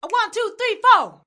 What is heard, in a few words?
ah one two three fo, female; FEMALE MISC